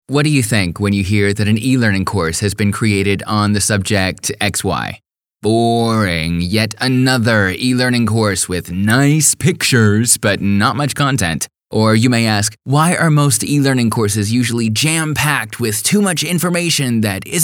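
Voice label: US English Kommentar Dynamisch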